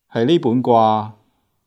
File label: "gwaa3", Cantonese